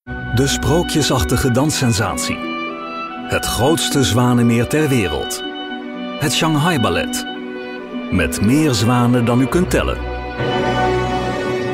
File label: soft sell TVC; Swanlake